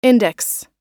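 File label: "index", English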